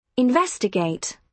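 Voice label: "investigate", British English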